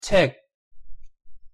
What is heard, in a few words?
"책", pronunciation ko